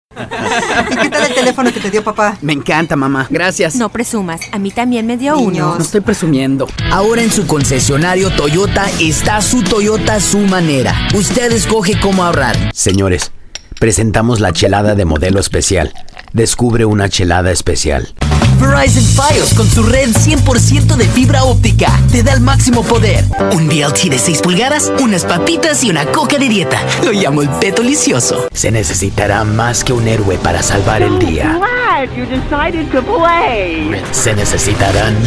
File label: Spanish Commercial; VoiceRealm